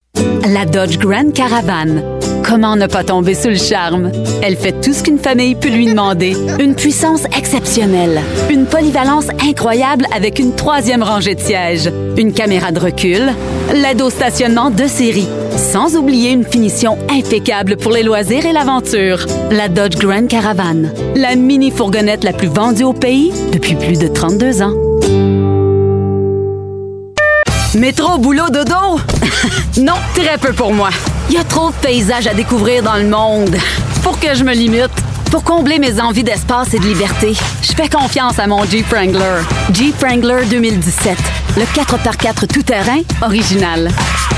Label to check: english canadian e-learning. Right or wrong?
Wrong